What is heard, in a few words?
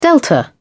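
"delta", British English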